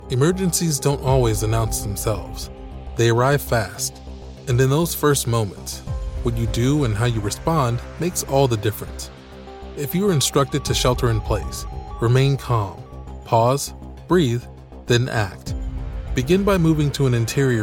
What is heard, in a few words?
Emergency Disaster PSA, Friendly, conversational, approachable Male Voice; Narration; Public Emergency Psa